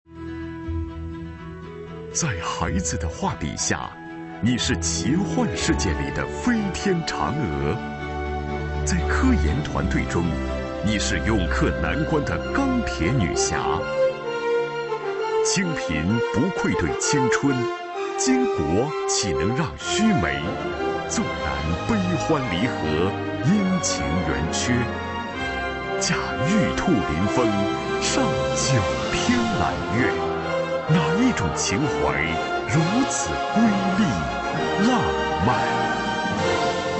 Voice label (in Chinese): 男 颁奖 人物颁奖词, 国语男声配音